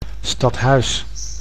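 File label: "stadhuis", Dutch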